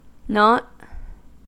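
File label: "nought", US English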